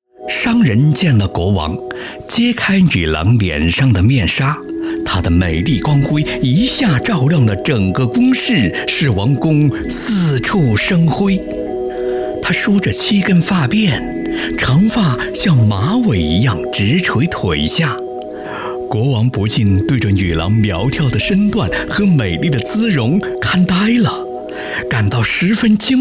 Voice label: 有声图书

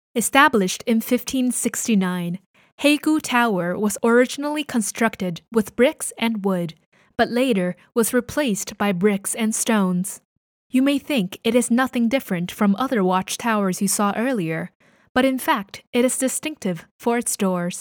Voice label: 长城导游样音

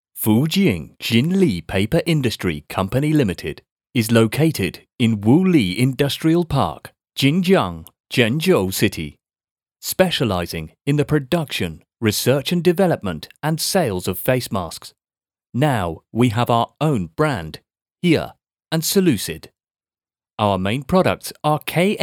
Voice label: 外籍 英语 英式 口音 企业 视频 公司 简

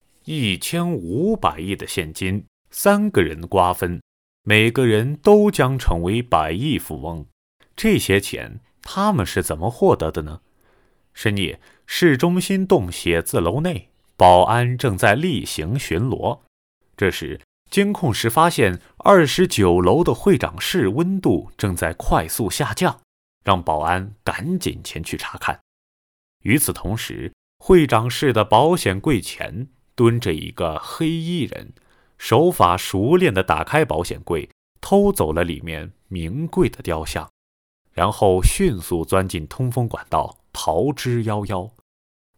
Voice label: 解说类

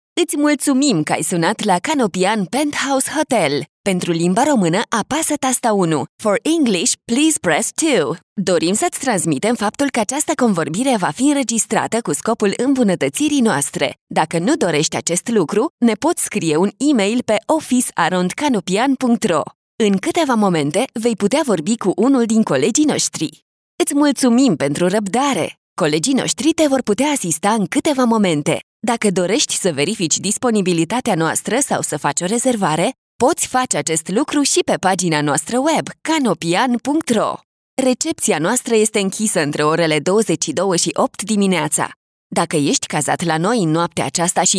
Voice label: 罗马尼亚语女

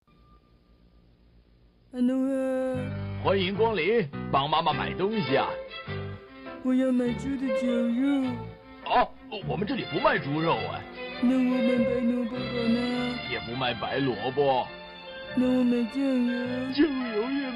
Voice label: 动漫配音 买东西; 蜡笔小新

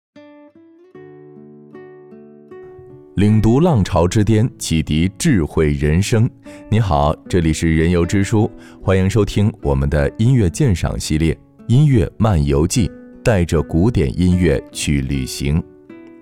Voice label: 电台感

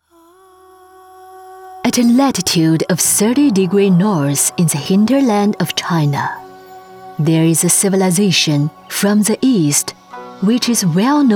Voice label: 英文四川旅游宣传片

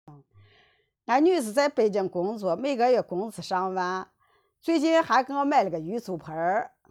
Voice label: 陕西中老年女

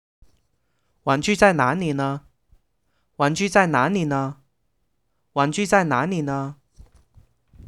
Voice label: 采访录音

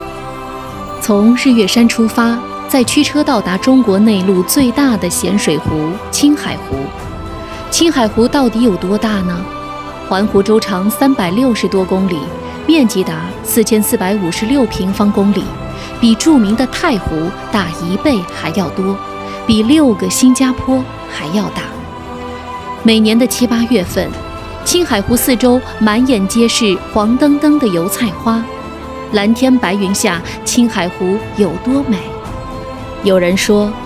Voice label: 专题纪录片

